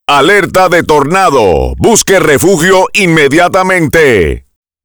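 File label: Tornado Warning, Spanish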